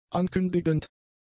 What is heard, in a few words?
"ankündigend", German; adjectives